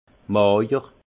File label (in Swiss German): "majer", alsace; vin; maigre